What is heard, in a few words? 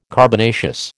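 "carbonaceous", American English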